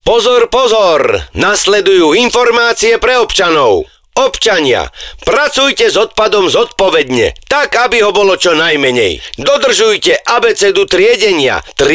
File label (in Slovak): obecny rozhlas spot